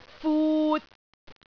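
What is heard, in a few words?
"fut3", Cantonese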